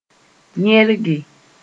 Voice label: "yẽrge", moore

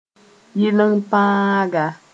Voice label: "yɩlen-paanga", Mooré; product

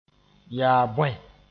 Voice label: "bõe", moore

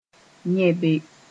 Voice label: "yẽbe", Mooré; sleeping with

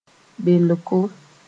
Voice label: "belko", Mooré

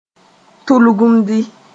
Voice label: "tulgumdi", moore

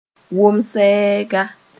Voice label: "wom-sɛɛga", moore

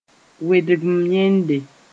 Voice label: "wedg-m-yẽnde", Mooré; plant sp

